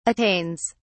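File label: "attains", English, British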